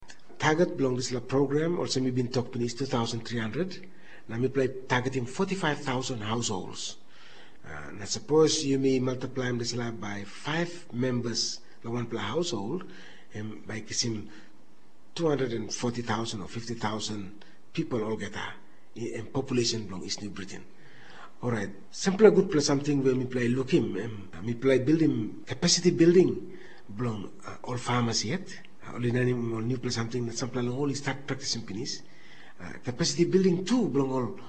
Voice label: Tok Pisin; Mama Graun